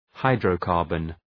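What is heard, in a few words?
"hydrocarbon", English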